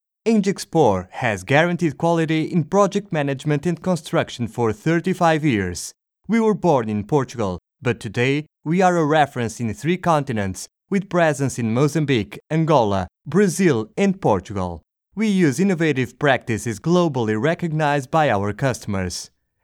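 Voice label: Corporate English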